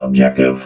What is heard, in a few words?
"objective", robot